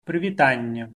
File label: welcome, belarusian